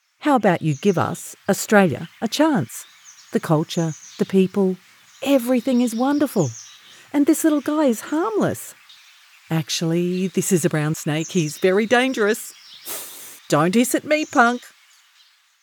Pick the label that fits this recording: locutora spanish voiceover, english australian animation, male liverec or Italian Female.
english australian animation